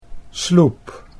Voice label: "sloep", Dutch